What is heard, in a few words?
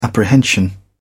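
"apprehension", British English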